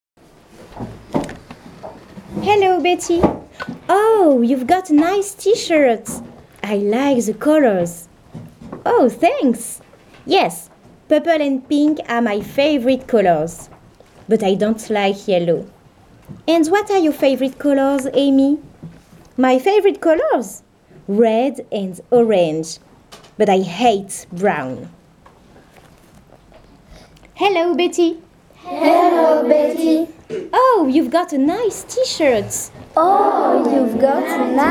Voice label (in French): Dialogue en anglais; Betty and Amy